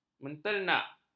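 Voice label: "menternak", Malay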